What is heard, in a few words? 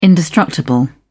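"indestructible", British English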